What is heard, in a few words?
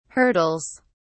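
"hurdles", English, British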